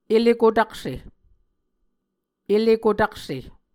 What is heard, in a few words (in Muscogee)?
ele kotakse, muscogee